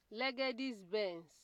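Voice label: "legedis benz", Nigerian Pidgin, female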